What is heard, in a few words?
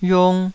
"yiung2", taiwanese Syllables